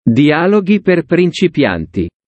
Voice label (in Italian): dialoghi, italiano